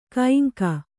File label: "ಕೈಂಕ", Kannada